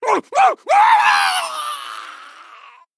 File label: Male Murloc Death Special